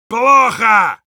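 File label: negative vocalization, Russian; Heavy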